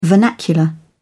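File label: "vernacular", British English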